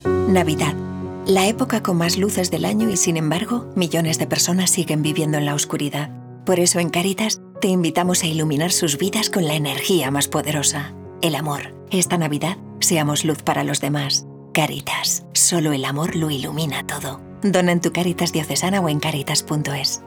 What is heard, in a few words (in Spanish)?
CUÑA DE RADIO